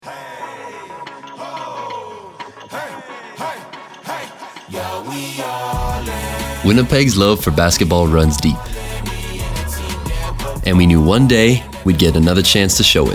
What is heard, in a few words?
Radio Ad; Day One